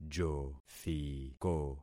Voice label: "jothiko", concat syllables; Affixing experiment